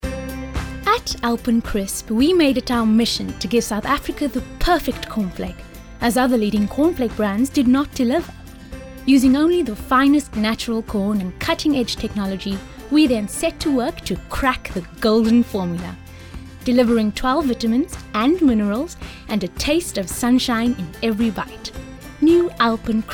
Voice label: soft sell